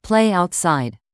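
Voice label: "play outside", English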